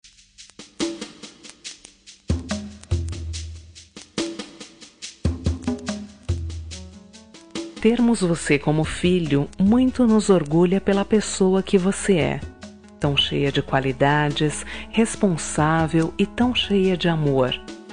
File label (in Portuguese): genro fem